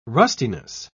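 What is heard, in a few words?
"rustiness", British English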